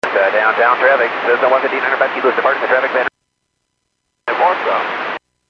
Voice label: Airports 122.7, Radio; KSTE